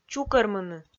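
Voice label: "чукӧрмыны", Komi-Zyrian, female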